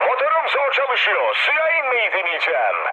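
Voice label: Turkish damaged engine, mood med, speech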